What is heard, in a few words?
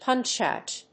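"punch out", English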